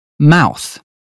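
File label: "mouth", British phonetics; 英语音标发音（剑桥版）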